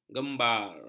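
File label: "gembar", Malay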